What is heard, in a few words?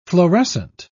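"florescent", British English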